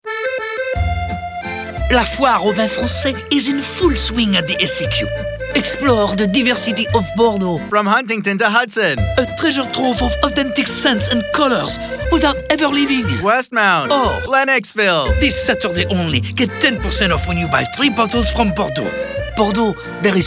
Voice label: ENG; SAQ; Bordeaux